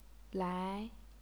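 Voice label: "lai", Chinese, second tone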